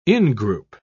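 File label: "ingroup", British English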